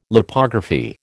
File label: "Lipography", American